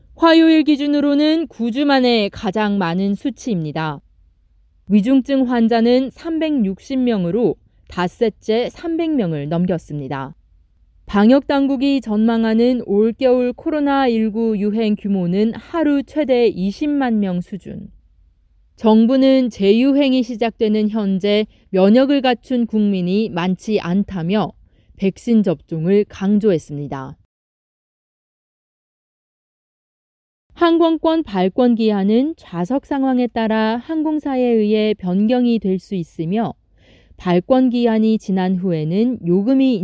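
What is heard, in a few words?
Korean, News; GoLocalise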